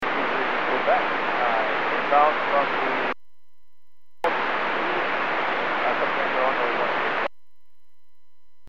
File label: Aviation 122.7; KSTE